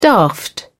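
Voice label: "daft", British English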